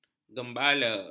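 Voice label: "gembala", Malay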